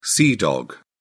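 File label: "sea dog", English, British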